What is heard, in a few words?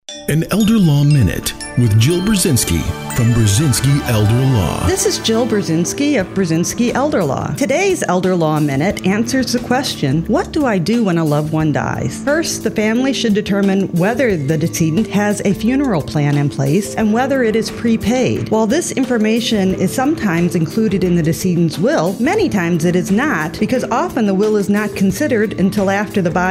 PSA spot